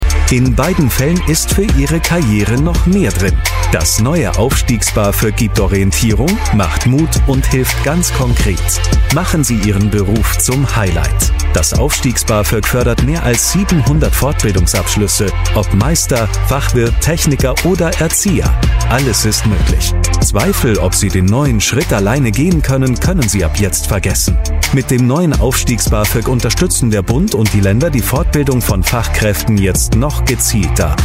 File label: german voice over male commercial